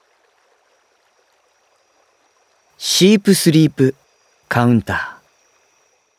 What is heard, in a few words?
Title call